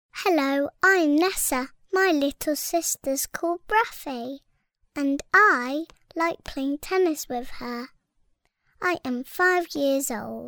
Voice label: girl british voice over narrative, english british